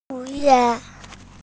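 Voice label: "shu4 ye4", Mandarin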